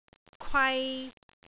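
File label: "kwai3", Cantonese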